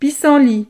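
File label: "pissenlit", French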